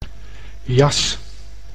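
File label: "jas", Dutch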